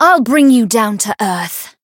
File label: chant